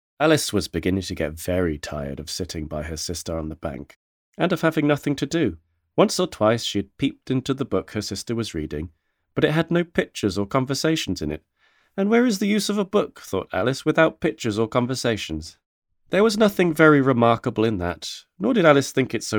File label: Children